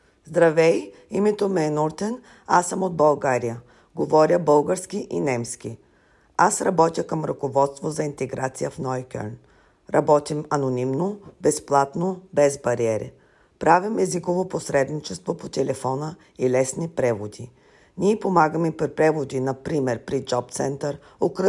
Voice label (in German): bulgarisch